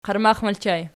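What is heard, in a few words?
"qarmax" ergative plural, Archi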